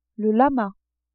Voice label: "lama", French

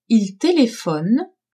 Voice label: "il telephone", French; telephoner

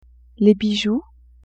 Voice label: "bijoux", French; vetements

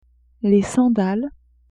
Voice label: "sandales", French; vetements

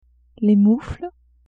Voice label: "moufles", French; vetements

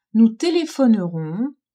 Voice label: "nous telephonerons", french

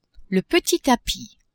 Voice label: "petit tapis", French; maison

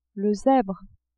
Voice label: "zebre", French

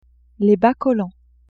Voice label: bas collants, vetements

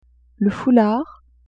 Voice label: "foulard", French; vetements

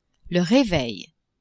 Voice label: "reveil", French; maison

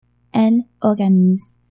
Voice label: "elle organise", french

